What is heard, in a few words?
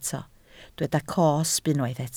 "cosb", Welsh